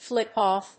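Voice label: "Flick off", English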